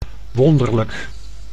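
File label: "wonderlijk", Dutch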